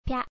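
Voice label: "pya", Japanese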